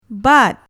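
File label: "bat", Malay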